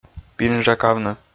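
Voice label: "пинжакавны", Komi, male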